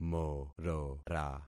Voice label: "morora", concat syllables; Affixing experiment